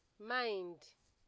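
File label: "mind", Nigerian Pidgin, female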